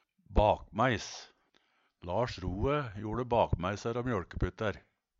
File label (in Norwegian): bakmaeis, Numedalsmål